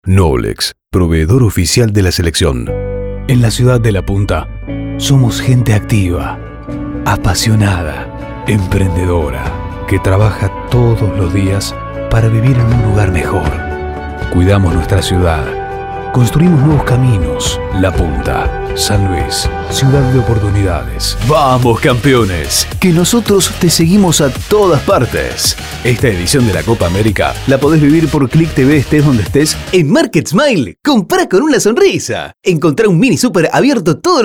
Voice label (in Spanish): Voces Masculinas